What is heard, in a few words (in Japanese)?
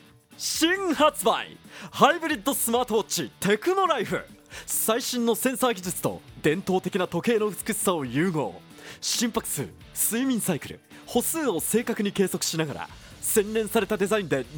商品紹介